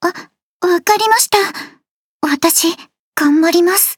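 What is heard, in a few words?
start, Japanese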